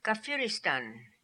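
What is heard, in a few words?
"Kafiristan", pronunciations; Fourth Way; Beelzebub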